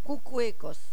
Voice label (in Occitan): "cocoecas", Gascon